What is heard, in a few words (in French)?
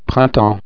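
"printemps", French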